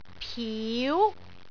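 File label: "piu2", Cantonese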